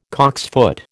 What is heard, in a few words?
"cocksfoot", American